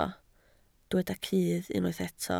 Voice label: "cudd", Welsh

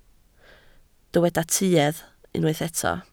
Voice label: "tuedd", Welsh

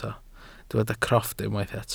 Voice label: "crofft", welsh

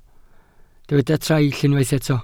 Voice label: "traill", Welsh

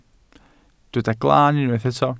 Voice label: "glan", Welsh

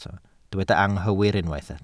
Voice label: "anghywir", Welsh

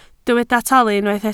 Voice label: "talu", Welsh

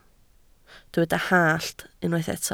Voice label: "hallt", Welsh

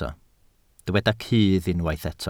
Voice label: "cudd", Welsh

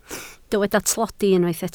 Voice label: "tlodi", Welsh

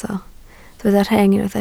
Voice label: "rheng", Welsh

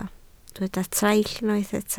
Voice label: "traill", Welsh

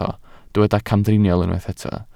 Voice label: "camdriniol", Welsh

